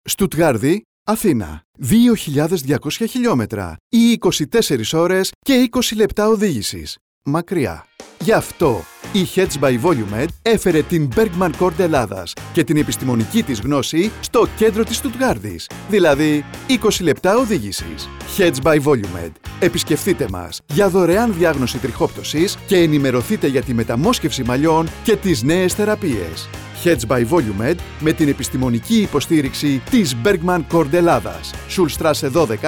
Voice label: radio spots; trixoptwsi metamosxefsi malliwn therapies; stoutgardi athina; germany